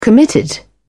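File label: "committed", English, British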